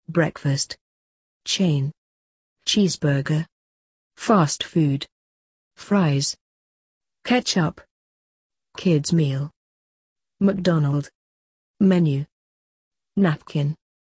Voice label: uk; mcdonalds

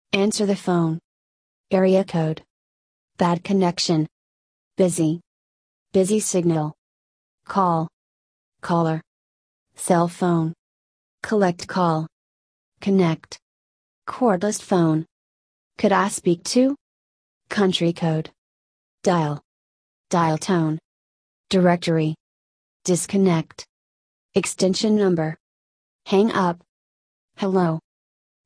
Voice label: USA; phone

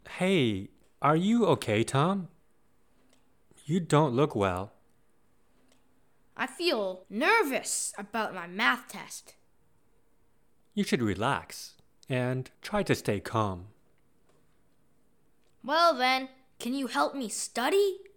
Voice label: emotions dialog

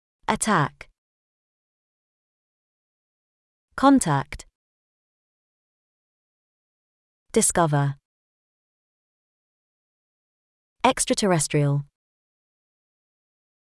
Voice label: uk

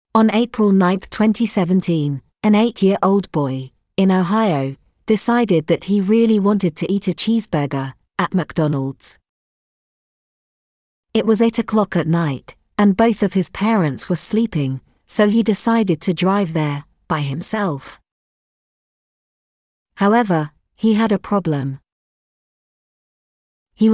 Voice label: uk boy drives mcdonalds

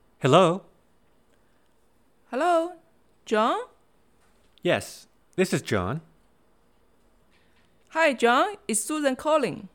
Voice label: basic english dialogs telephone

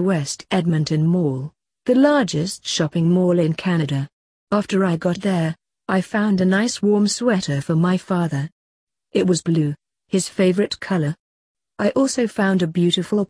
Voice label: uk special gift

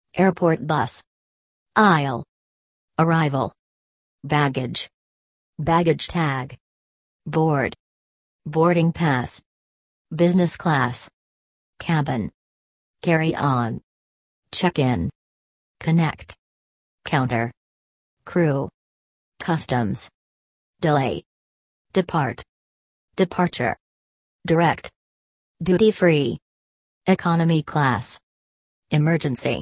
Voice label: airports american

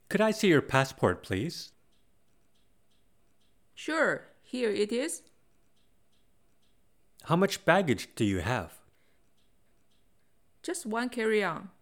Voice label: basic english dialogs air travel

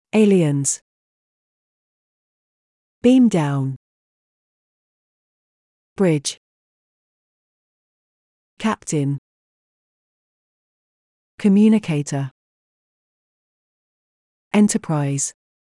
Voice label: uk; startrek

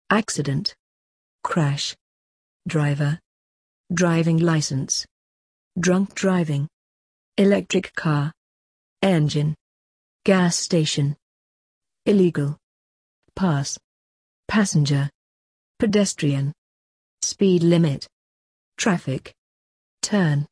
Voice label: uk driving

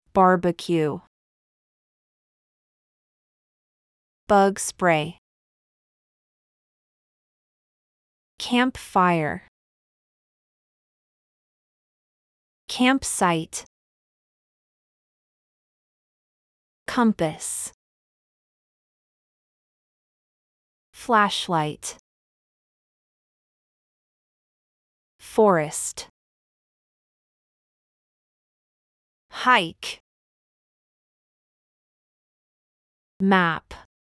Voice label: usa; camping

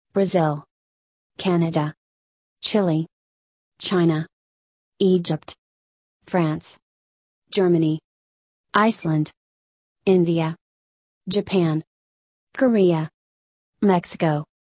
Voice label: usa; languages

